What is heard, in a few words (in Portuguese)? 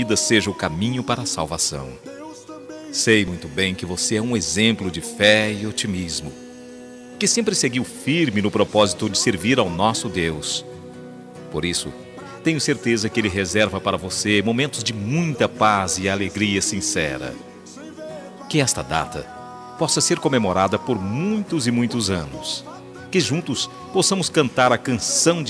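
Aniversário Evangélico Masculino, telemensagem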